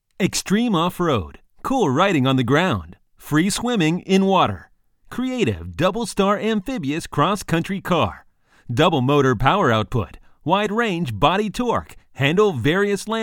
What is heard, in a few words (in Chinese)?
外籍男; 英语